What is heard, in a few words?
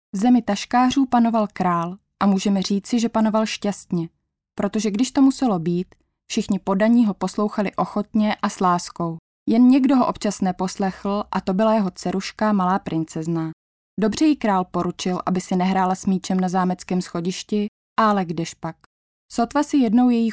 czech f narration, czech voice